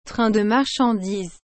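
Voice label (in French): "train de marchandises", French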